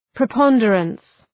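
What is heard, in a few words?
"preponderance", English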